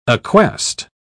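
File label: "acquest", British English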